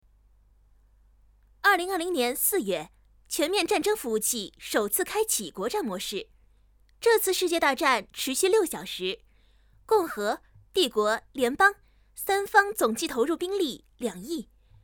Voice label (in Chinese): 播报